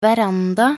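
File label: "veranda", Norwegian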